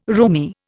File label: "roomie", Indian